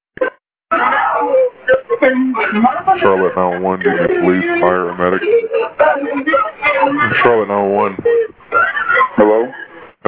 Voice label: 911 caller requests medic